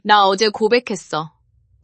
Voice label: neutral